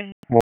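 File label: Police Southwest District Dispatch, p25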